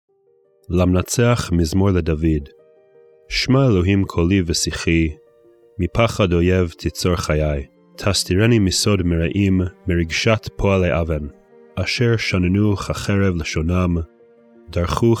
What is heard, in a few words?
poetry and prayer psalm hebrew